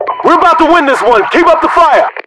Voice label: winning, voiceovers, US; english